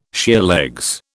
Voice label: "sheerlegs", British

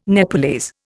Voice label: "Nepalese", Indian

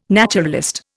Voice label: "naturalist", Indian

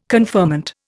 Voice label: "conferment", Indian

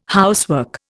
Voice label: "housework", Indian

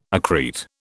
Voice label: "accrete", British

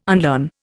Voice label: "unlearn", Indian

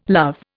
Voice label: "love", Indian

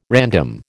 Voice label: "random", American

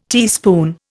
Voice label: "teaspoon", Indian

